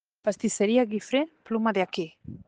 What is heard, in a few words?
Voice message